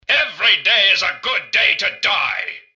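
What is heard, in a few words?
robot normal to combat; mrgutsy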